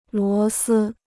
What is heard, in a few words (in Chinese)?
"螺丝", Chinese